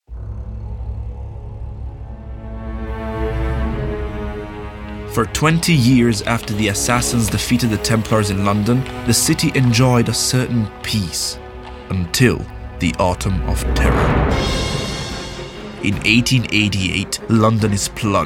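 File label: Italian, English accent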